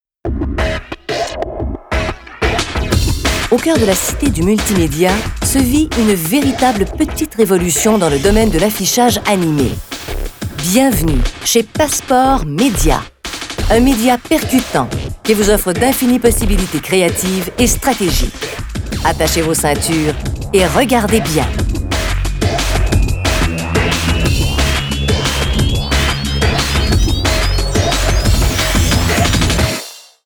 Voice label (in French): Voix Narration